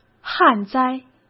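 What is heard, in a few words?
"旱灾", Mandarin Chinese; hsk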